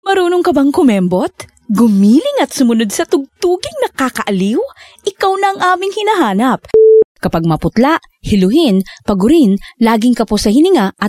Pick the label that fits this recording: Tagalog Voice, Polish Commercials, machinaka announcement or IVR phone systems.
Tagalog Voice